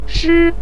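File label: "shi", Mandarin Chinese syllable, neutral tone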